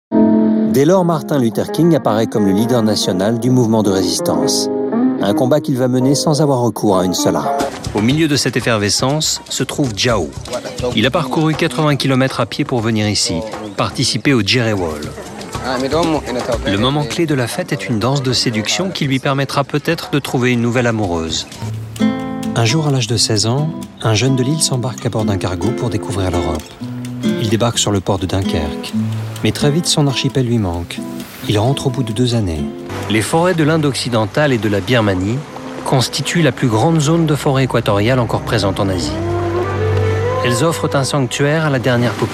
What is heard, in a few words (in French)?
documentaire